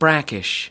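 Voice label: "brackish", US English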